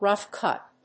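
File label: "rough-cut", English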